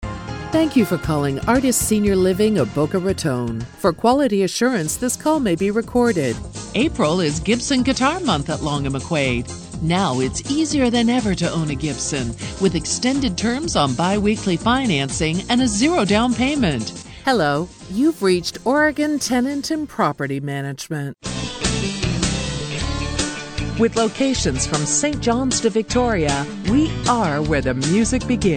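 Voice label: english canadian interactive voice response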